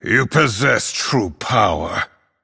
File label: Male Night Elf Well Played